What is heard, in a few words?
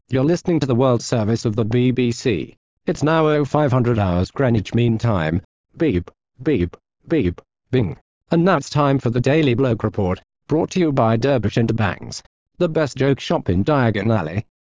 BBC World Service not DECtalk